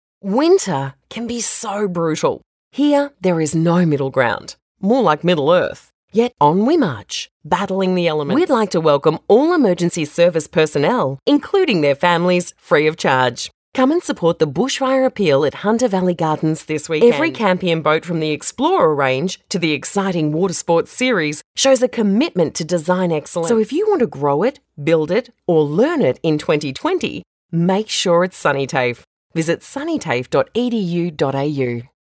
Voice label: Soft Sell